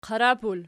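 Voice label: "qarapul" nominative, Archi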